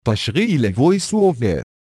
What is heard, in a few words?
Voice Over On, ar; AXEFIAudio